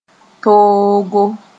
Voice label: "toogo", Mooré